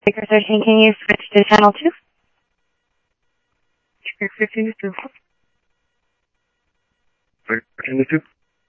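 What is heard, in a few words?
Mesa Police Department, scanner audio; MESA PD 1 Fiesta MesaPoliceDep; Mesa Simulcast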